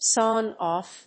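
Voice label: "sawn-off", English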